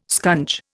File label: "scunge", Indian